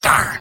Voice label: engineer negative vocalization; tf2; mvm